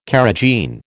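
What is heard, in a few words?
"carrageen", American English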